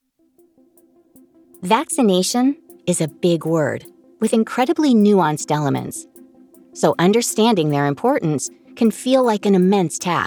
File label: english american medical narration; United States